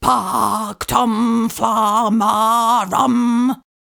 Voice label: pactum flammarum slow, incantations older female fire spells; psfx